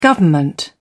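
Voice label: "government", English, British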